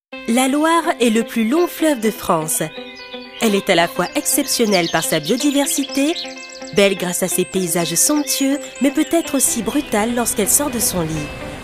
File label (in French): ton documentaire convivial et rassurant; LA LOIRE Orléans métropole